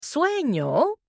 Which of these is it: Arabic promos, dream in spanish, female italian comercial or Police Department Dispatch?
dream in spanish